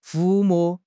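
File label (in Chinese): "抚摸", Mandarin Chinese; HSK6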